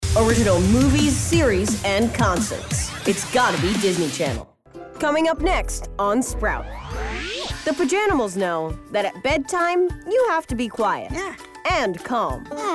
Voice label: voiceover narration men